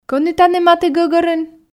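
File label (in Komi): кӧні тані матігӧгӧрын, Komi, female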